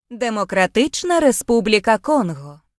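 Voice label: "democratic republic of congo", Ukrainian; gcompris; geography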